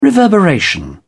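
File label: "reverberation", British English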